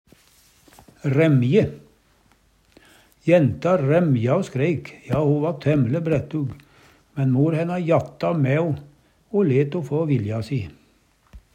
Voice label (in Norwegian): ræmje, Numedalsmål; Jenta ræmja o skreik, ja, ho va tæmm'le brættug, men mor hæna jatta mæ o, o let ho få vilja